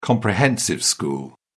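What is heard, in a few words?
"comprehensive school", English, British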